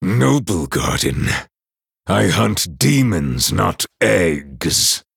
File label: Male Night Elf HAPPY NOBLEGARDEN